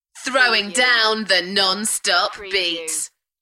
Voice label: UK female, Throwing down the non-stop beats; beanstalk audio